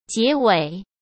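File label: "结尾", Mandarin Chinese; hsk; chinese-flashcards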